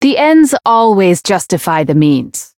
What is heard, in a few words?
Voice variable chant